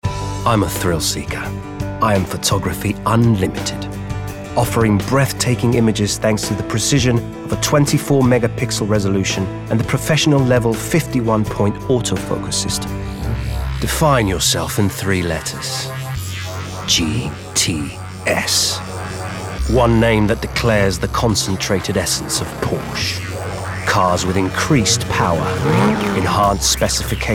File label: Commercial Compilation